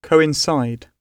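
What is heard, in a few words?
"coincide", British English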